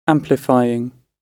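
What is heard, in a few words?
"amplifying" British English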